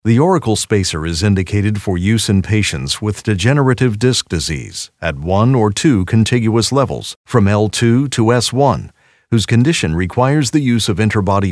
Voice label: Medical Narration